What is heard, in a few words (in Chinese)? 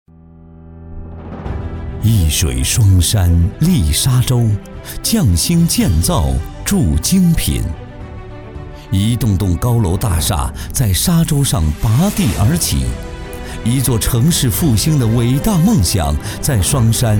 男 宣传片 大气浑厚; 双山建工